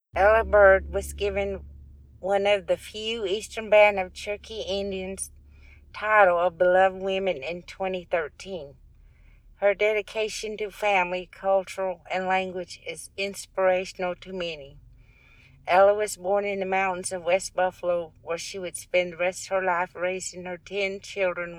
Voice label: english